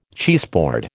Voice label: "cheeseboard", American

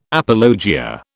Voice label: "apologia", British

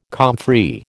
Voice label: "comfrey", American

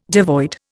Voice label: "devoid", Indian

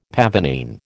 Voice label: "pavonine", American

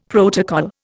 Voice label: "protocol", Indian; Dictionary

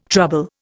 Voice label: "trouble", Indian

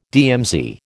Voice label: "DMZ", American

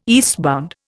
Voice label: "eastbound", Indian

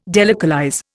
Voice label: "delocalize", Indian

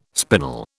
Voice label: "spinal", British

